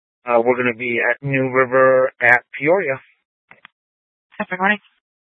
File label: Sheriffs Office, scanner